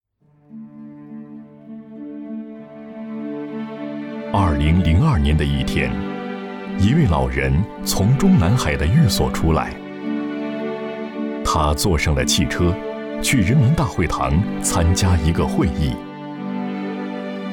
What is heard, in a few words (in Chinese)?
专题, 人物, 娓娓道来人物专题, 讲述